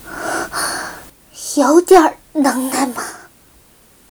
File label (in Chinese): 死亡, BattleCry; 薇薇安